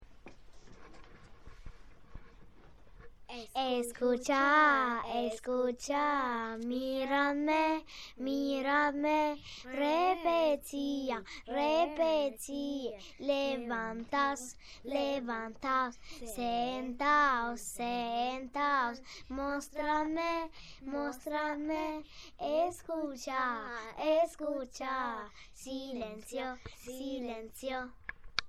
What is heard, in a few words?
Spanish